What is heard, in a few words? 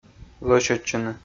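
"лӧсьӧдчыны", Komi, male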